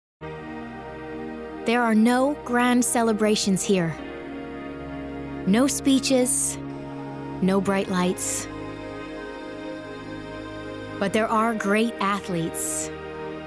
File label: COMMERCIAL; Nike